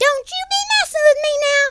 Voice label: youllregretthat, Southern Belle; speech